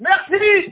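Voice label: "merci", French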